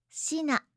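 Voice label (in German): "shina", japanische Mädchennamen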